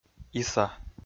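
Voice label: "иса", Komi, male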